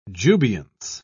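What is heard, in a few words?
"jubilance", British English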